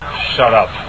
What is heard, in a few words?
"shut up" calm